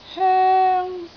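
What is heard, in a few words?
"hoeng1", Cantonese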